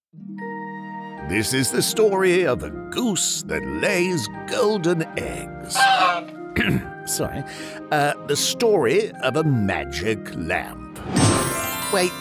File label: Radio Ad; CountPro